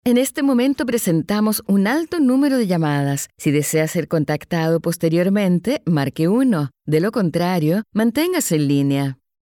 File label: IVR; CLINCIA DAVILA